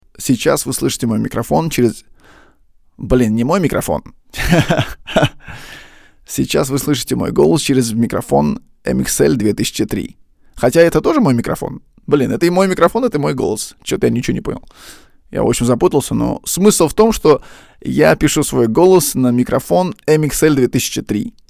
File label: mic goodroom